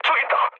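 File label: Korean enemy detected mood low, speech; War Thunder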